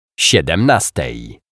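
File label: "siedemnastej", Polish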